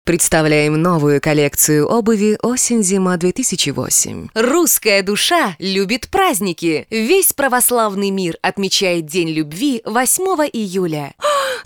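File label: Russian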